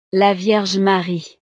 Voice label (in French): "la vierge marie", frances; navidad